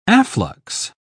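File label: "afflux", English, uk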